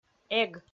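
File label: "эг", Komi, female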